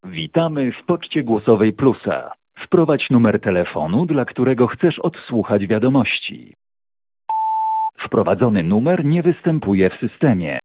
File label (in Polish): Poczta głosowa z innego numeru; Plus